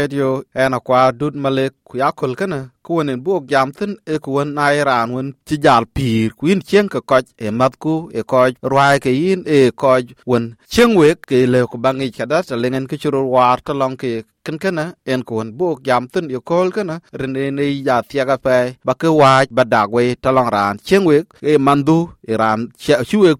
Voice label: dinka; SBS Dinka

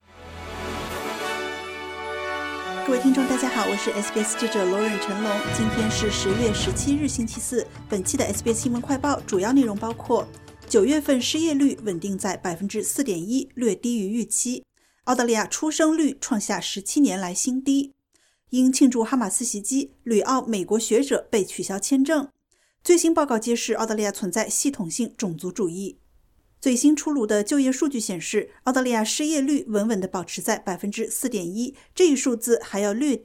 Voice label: mandarin news flash; 新数据：九月份失业率4.1% 低于预期; SBS Chinese; SBS新闻快报

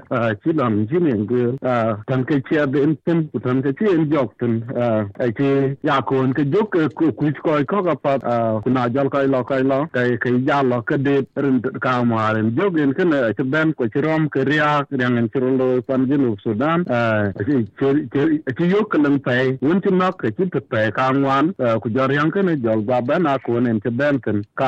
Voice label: dinka; SBS Dinka

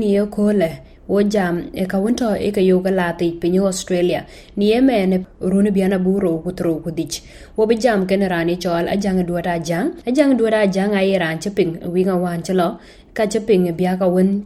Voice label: dinka; Raan lui kë riëënythii ee ŋɔ̈ɔ̈th bë pïïr de baai bɛ̈i tënë mïïth ke South Sudan cikke mai; SBS Dinka

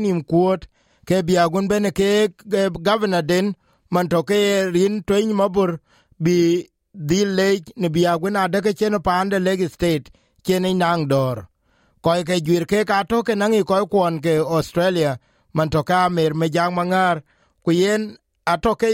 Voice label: dinka, podcast; SBS Dinka

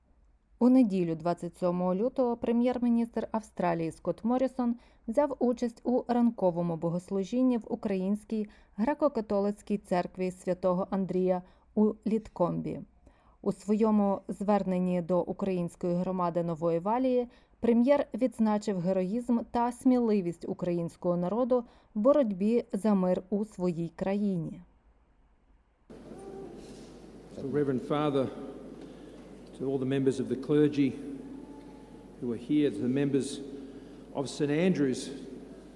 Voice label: ukrainian; SBS Ukrainian; Скотт Моррісон звернувся до української громади Австралії

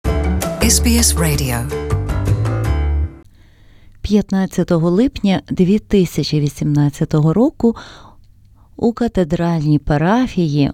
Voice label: ukrainian; SBS Ukrainian; North Melbourne Parish Feast Celebration

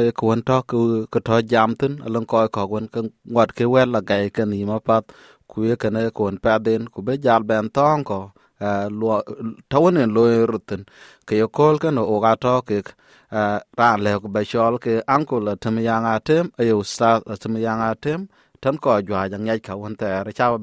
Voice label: dinka, podcast; Voting in Voice Referendum; SBS Dinka

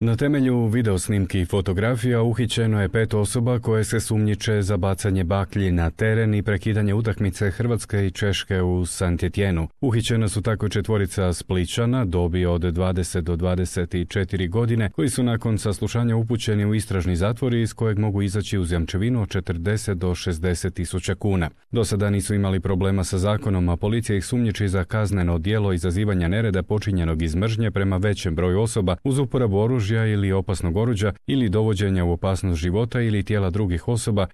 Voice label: croatian; five suspected euro 2016 hooligans arrested in croatia

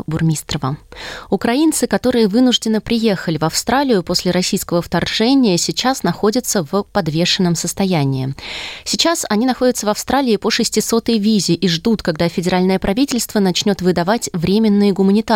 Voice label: russian; SBS Russian; Украинцы в Австралии: новая виза и получение помощи

